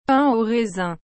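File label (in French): "pain aux raisins", French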